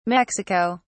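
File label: "mexico", British English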